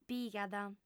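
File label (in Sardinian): "pigat", female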